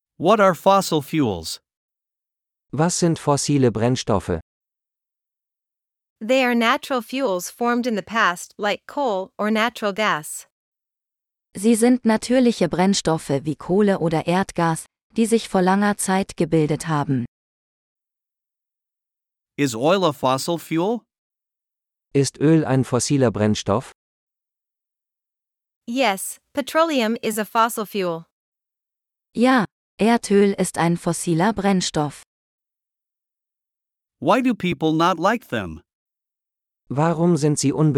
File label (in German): Dialog mit Uebersetzungen; Fossile Brennstoffe; nachhaltigkeit-klimawandel